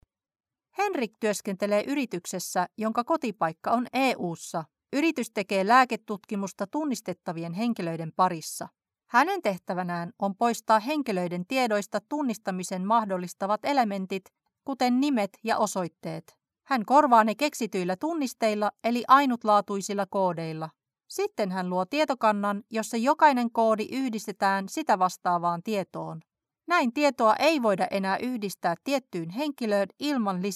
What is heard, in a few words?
female finnish voice over narrative